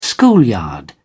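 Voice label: "schoolyard", British English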